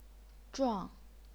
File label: "zhuang4", chinese pinyin